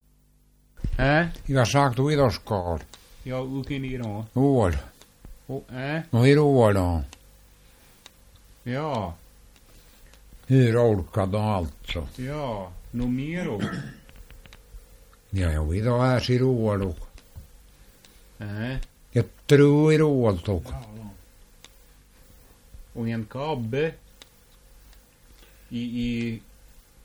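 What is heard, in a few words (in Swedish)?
Älvdalen Dalarna, dialekter; Folkminnen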